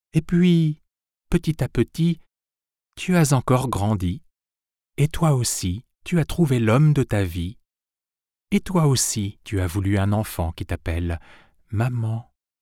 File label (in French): voix off conte; vie ordinaire